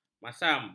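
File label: "masam", Malay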